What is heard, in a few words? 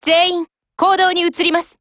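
Japanese; RO635; FORMATION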